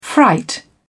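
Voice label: "fright", British English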